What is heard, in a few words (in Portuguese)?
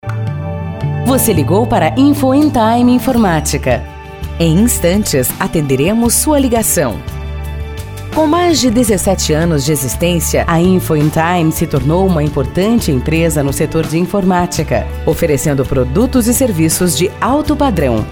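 espera telefônica; info in time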